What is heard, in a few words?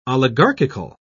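"oligarchical", British English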